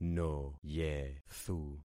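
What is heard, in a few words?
"noyethu", concatenated syllables; Affixing experiment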